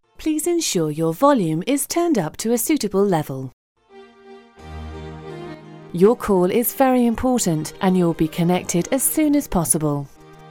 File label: on hold